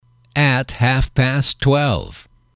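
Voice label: at Half Past Twelve, english, male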